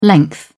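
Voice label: "length", British English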